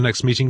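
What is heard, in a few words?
"next meeting", Standard Southern British English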